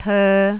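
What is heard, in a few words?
"toe3", Cantonese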